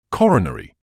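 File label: "coronary", British English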